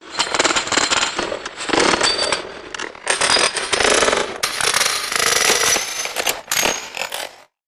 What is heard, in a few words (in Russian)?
golos rech robota